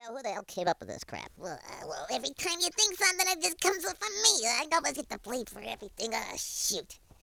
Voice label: angry